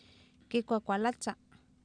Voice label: kikwakwalatsa, Oapan minimal pairs female; Oapan minimal pairs 1998